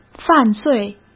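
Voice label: "犯罪", Mandarin Chinese; chinese-flashcards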